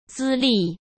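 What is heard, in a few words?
"资历", Mandarin Chinese; hsk; chinese flashcards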